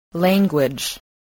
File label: "language", English